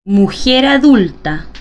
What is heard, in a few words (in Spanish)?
Mujer adulta